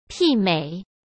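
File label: "媲美", Mandarin Chinese; hsk; chinese-flashcards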